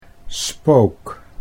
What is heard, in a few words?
"spook", Dutch